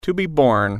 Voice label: "be born", English

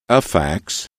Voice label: "a fax", english

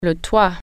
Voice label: roof, french

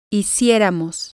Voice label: "hicieramos", spanish

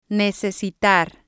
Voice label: "necesitar", spanish

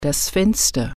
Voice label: "window", German

